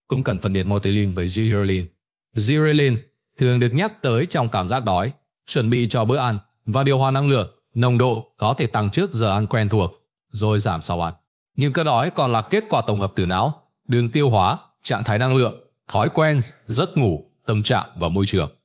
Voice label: narration